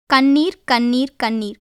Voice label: Tamil; கண்ணீர்; kaṇṇīr; [kəɳɳiːr]; tear; 涙